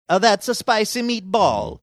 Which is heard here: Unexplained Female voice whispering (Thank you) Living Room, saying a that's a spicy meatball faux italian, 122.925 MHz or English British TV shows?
saying a that's a spicy meatball faux italian